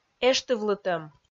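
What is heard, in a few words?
"эштывлытӧм", Komi, female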